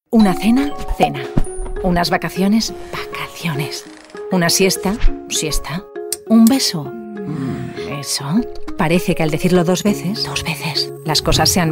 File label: female spanish air comercial; ideasonora